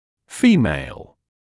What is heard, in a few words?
female; glossary